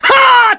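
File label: Vox Skill, Korean; Kasel; kingsraid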